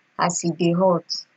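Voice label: "as e dey hot", Nigerian Pidgin, female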